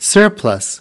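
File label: "surplus" US English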